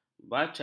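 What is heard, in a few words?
"bacar", Malay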